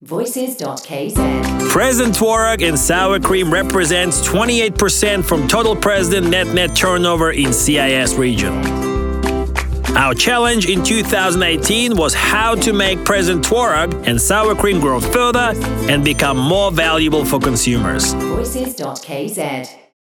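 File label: English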